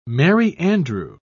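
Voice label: "merry-andrew", British English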